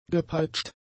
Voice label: "gepeitscht", German; adjectives